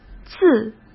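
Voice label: "自", Mandarin Chinese; chinese-flashcards; hsk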